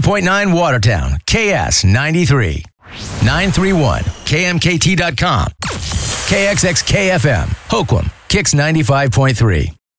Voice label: Country Imaging, voiceover